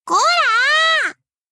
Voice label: angry